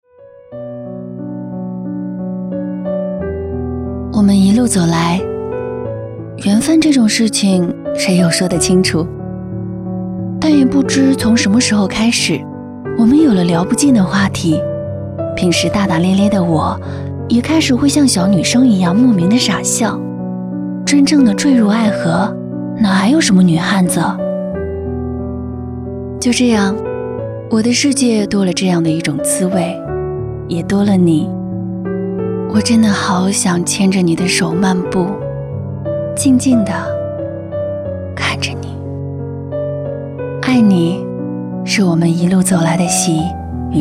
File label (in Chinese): 婚礼独白 故事感; 微电影